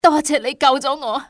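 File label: "thank", female; XBOX; civ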